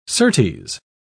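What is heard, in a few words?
"certes", British English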